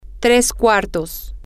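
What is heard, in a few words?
medium well, Spanish, female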